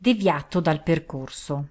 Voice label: Italian, female; Route Deviated

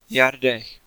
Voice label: Yards, Czech, male

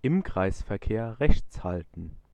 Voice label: Rb Right, German male

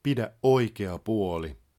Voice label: keep right, Finnish, male; Opas

